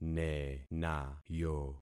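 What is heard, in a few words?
"nenayo", concatenated syllables; Affixing experiment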